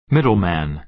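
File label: "middleman", American English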